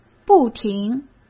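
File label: "不停", Mandarin Chinese; hsk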